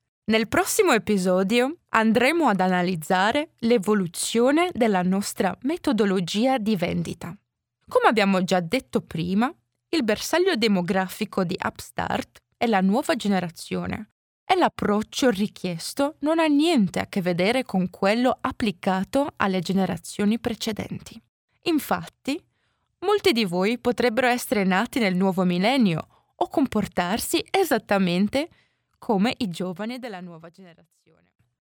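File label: Italian, female, voice over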